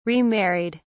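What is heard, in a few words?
"remarried", British English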